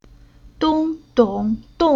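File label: "Dong", Chinese syllable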